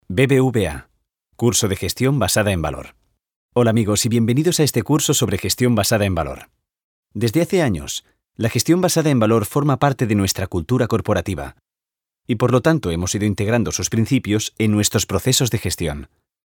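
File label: Spanish informative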